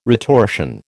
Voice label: "retortion", American

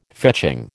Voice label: "fetching", American

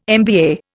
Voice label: "MBA", Indian

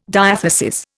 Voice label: "diathesis", Indian

